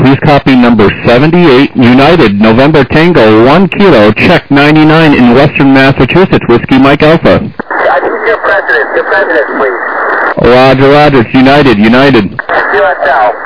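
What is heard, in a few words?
lower sideband 7MHz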